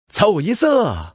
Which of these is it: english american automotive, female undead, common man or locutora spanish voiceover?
common man